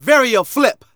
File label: Narration